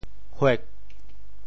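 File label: "huek4", Teochew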